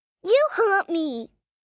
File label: You Hurt Me, Hurt, 1 girl, 5 yrs; Pain; VoiceBox